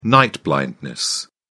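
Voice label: "night blindness", English, British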